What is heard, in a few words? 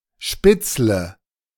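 "spitzle", German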